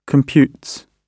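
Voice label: "computes", British English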